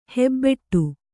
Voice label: "ಹೆಬ್ಬಟೆ", Kannada

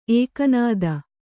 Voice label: "ಎಕ್ಕನಾದಿ", Kannada

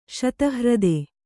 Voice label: "ಶತಹ್ರದ", Kannada

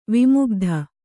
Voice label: "ವಿಮುಗ್ಧ", Kannada

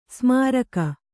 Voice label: "ಸೋಮರಾಗ", Kannada

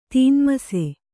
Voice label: "ತೀನ್ಮಸೆ", Kannada

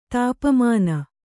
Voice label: "ತಪಮಾನ್", Kannada

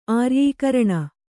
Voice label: "ಆರ್ಯೀಕರಣ", Kannada

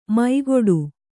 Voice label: "ಮೈಗೊಡು", Kannada

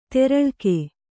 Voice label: "ದೂರಲೇಖ", kannada

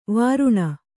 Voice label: "ವಾರಣಿ", Kannada

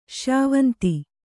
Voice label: "ಶ್ಯಾವಂತಿ", Kannada

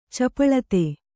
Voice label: "ಚಾಪಲತೆ", kannada

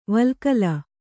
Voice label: "ವಲ್ಕಲ", Kannada

